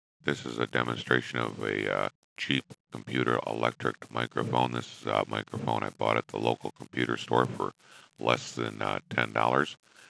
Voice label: Cheap Computer Microphone